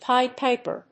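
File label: "Pied Piper", English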